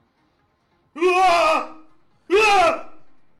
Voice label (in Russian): mellstroy krichit